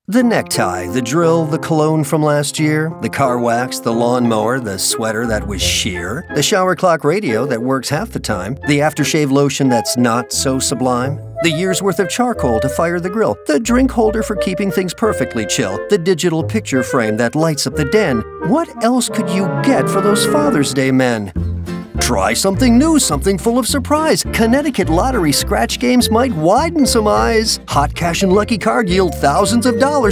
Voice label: Humorous and Poetic